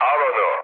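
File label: Turkish attack position, mood low, speech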